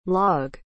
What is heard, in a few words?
"log", English